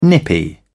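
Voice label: "nippy", British English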